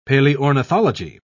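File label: "paleornithology", British English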